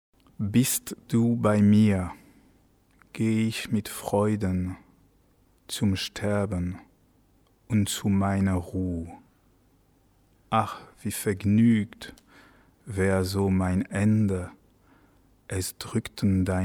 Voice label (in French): texte lent; bist du bei mir; diomedes oder die triumphierende unschuld bwv 508 anh ii 114; chantons baroque